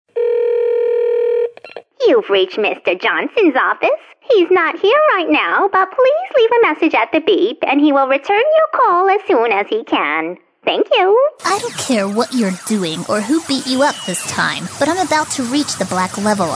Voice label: female showcase